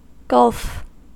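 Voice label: "gulf", American English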